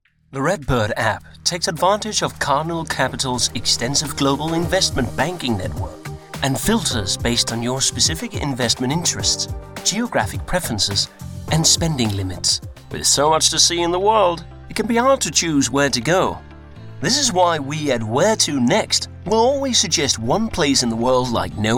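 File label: Danish Accented English Commercial; GoLocalise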